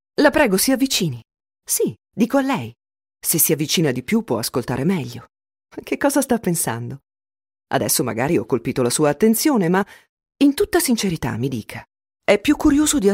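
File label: F, Italian, female, narration, voice over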